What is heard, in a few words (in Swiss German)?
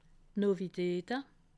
"novitate", alsace